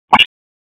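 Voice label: Dispatch, p25; WSO DISP 1; wake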